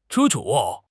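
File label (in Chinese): "盛情", Chinese; HSK6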